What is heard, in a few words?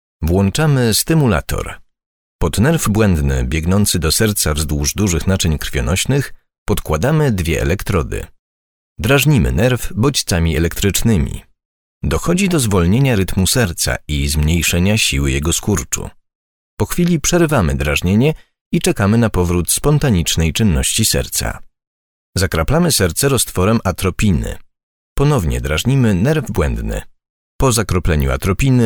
polish male